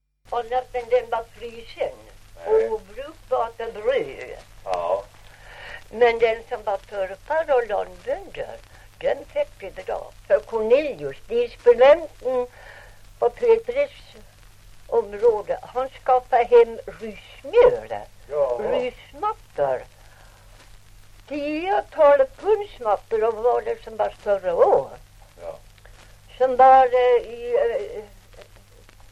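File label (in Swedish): Ovansjö Gästrikland, Svenska sagor, dialekter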